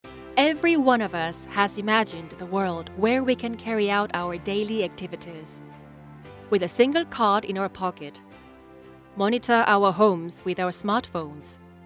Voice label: Narration